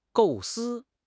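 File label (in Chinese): "构思", Chinese; HSK6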